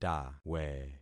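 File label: "tawe", concatenated syllables; Affixing experiment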